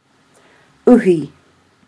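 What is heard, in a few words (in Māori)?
"uhi", Māori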